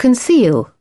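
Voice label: "conceal", British English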